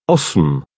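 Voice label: "osm", Czech, male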